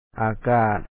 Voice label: "?aaka3at", Lao; air, fresh air

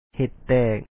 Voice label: ເຣັດແຕກ he#t tE3Ek to break, Lao

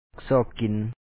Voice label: "sO1Ok kin", Lao

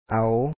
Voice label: "aw", Lao

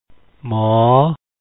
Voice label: m2 sound, lao alphabet sounds

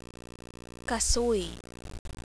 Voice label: "kasuy", Tagalog, diphthongs; kasuy (cashew); Dipthongs

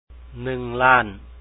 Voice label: million, Lao; nu#N láan; 1,000,000

